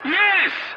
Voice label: voice message yes, english; War Thunder